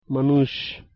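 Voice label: "manush", Bengali, male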